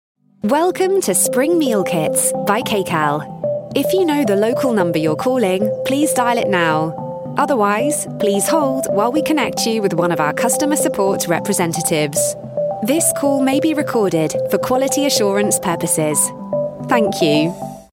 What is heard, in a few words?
ivr on hold